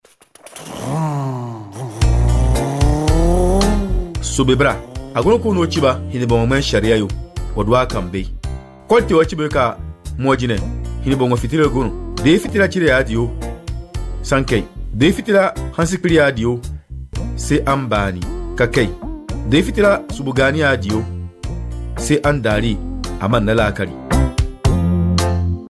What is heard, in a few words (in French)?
CODE DE LA ROUTE DENDI